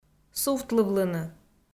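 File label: "сувтлывлыны", Komi-Zyrian, female; audio dict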